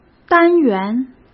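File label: "单元", Mandarin Chinese; hsk; chinese flashcards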